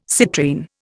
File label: "citrine", Indian